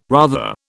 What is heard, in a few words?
"rather", British